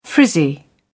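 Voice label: "frizzy", British English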